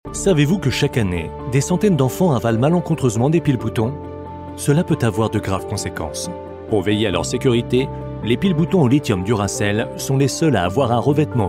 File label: commercial; Duracell; securite enfant; French voice-over